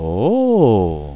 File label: Ough Man Saying